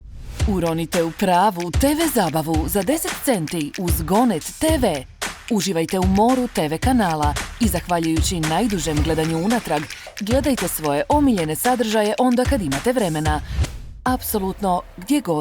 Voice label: ad, croatian